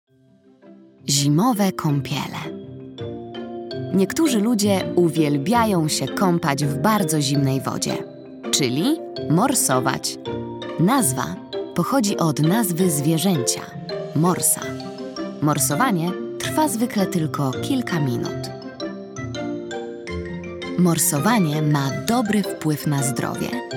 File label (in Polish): narracja; czasopismo mis; zima; Zimowe kąpiele